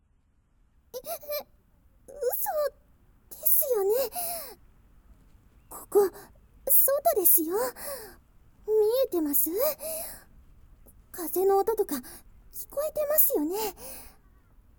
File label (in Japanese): 耳舐めのお願い…, ASMR